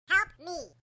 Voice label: slow